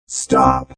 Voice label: Robot Voice "Stop"; Lynne Music